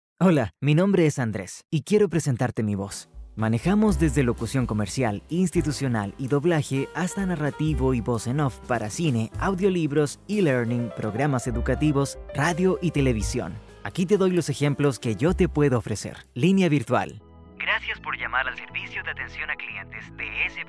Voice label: spanish latin american commercial

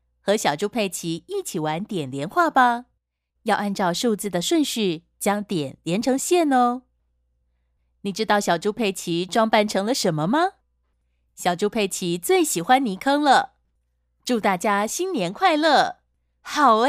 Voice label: Chinese Mandarin animation

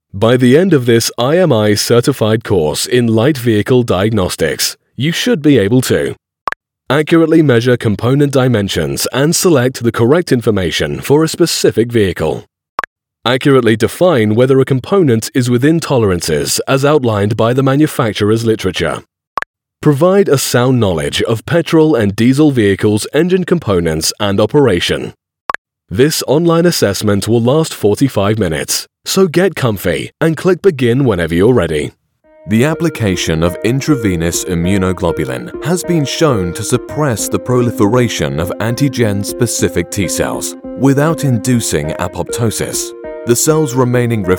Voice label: english british e-learning

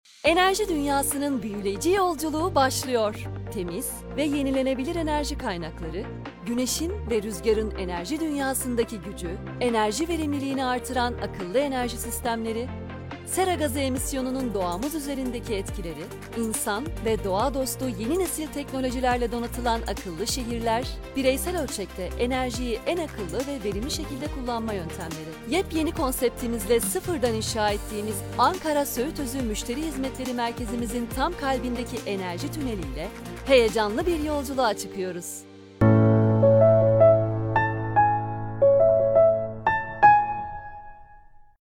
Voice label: turkish explainer videos

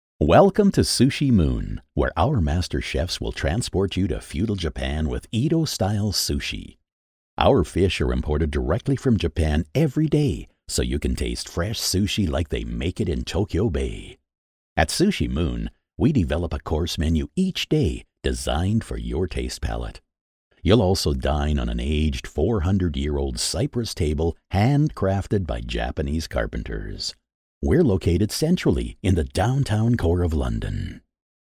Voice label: english american movie trailers

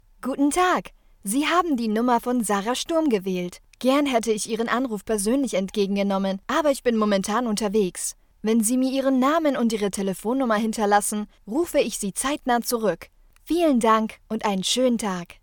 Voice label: german ivr

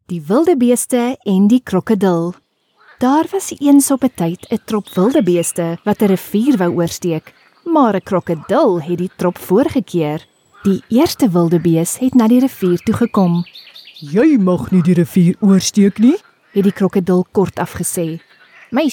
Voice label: afrikaans audiobooks; Synchronsprecher aus Südafrika

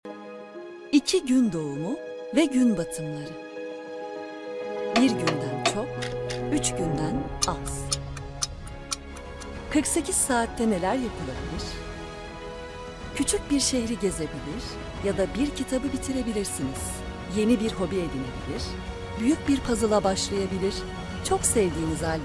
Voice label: turkish corporate videos

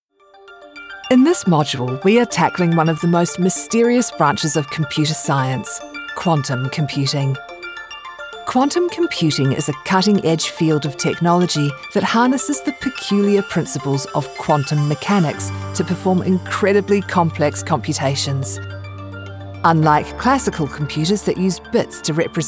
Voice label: english new zealand e-learning

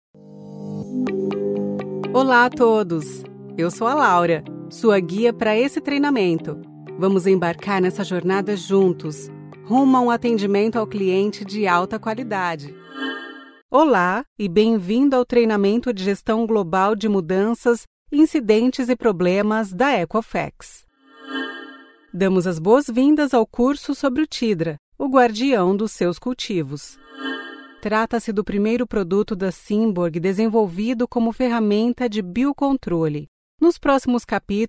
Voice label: portuguese brazilian e-learning

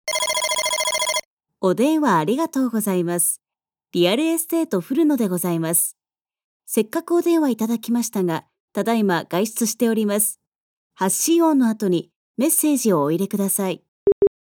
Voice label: japanese ivr

